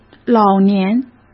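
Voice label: "老年", Mandarin Chinese; chinese flashcards; hsk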